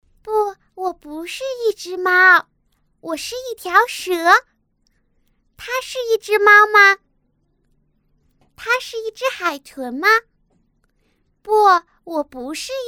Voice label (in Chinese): 动画 童声 女童, 女国